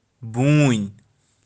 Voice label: "buuin", kashmiri